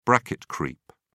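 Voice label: "bracket creep", English, British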